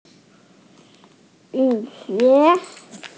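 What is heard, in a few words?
"rain boots", Mandarin Chinese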